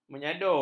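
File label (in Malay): "menyadur", Malay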